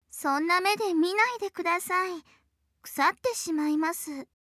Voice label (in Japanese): ののしりボイス; 彩姫2; Aruji no Tame ni Kane wa Naru